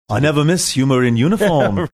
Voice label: saying i never miss humor in uniform scouse